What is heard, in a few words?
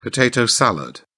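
"potato salad", British English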